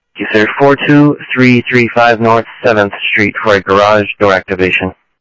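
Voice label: scanner audio trunk, South Scottsdale, Sheriff East County; MCSO - EAST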